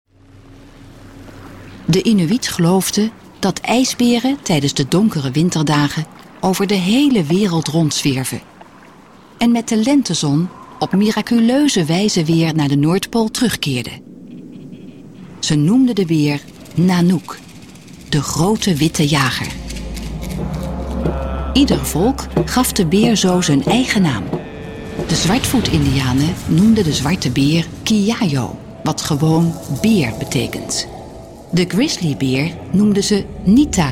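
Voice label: NL F narration, Dutch, female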